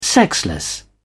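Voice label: "sexless", British English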